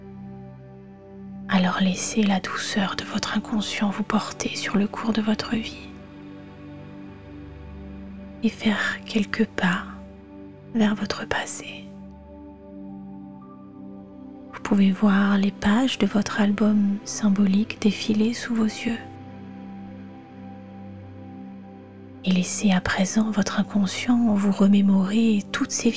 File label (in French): seance avec musique